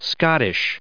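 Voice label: "Scottish", English, us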